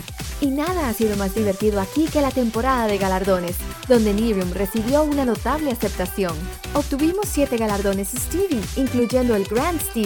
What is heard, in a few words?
spanish latin american corporate videos